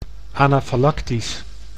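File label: "anafalactisch", Dutch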